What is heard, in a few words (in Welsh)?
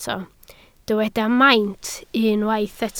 "maint", Welsh